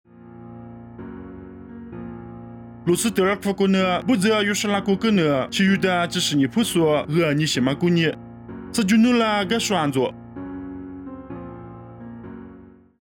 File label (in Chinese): 凉山彝语; 地中海路司得; nuosu